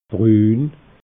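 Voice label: "brun", Alsatian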